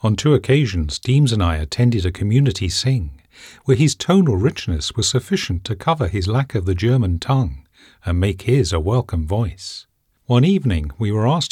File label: Historical Fiction, voiceovers; OAmerica